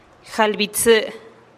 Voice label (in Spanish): "jalih bi tsï" treinta, teotitlan